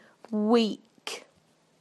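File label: "week", British English; PictureDictionary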